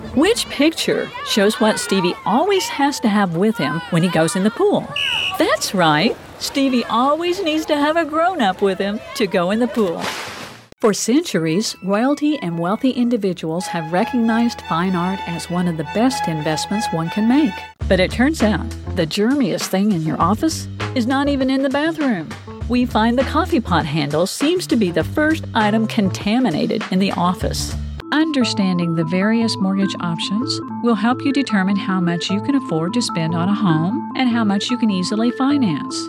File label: NARRATION; KUHL VOICE-OVERS